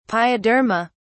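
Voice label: "pyoderma", British English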